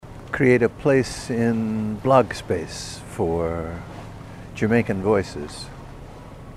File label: jamaican voices